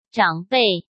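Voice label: "长辈", Mandarin Chinese; hsk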